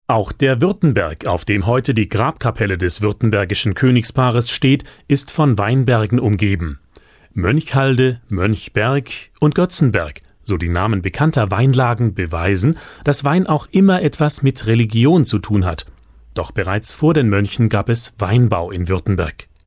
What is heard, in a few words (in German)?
Dokumentation Weinbau in Württemberg